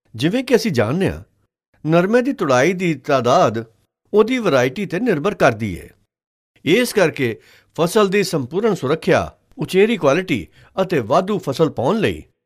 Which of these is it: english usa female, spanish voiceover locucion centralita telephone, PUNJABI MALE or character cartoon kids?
PUNJABI MALE